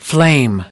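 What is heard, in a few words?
"flame", English word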